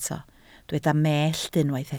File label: "mellt", Welsh